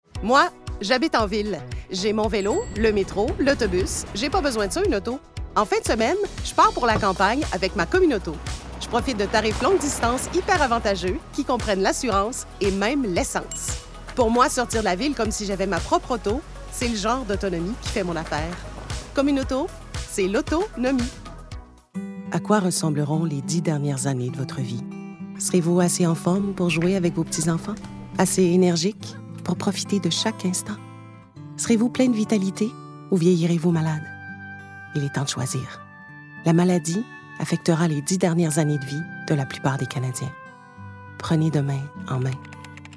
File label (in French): VOIX publicitaires